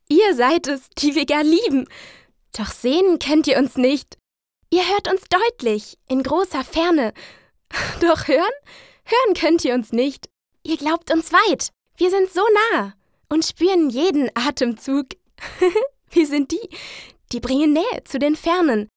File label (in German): fröhlich, frauen